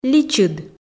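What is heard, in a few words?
"личыд", Komi, female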